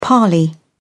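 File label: "parley", British English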